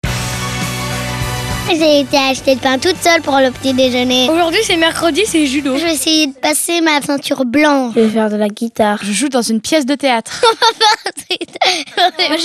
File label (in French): radio pub spot